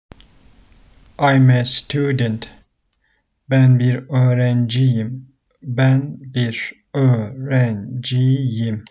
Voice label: I am a student, Turkish phrasebook; the talking turkish phrasebook